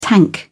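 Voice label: "tank", British English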